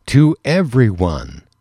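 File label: "to everyone", English, male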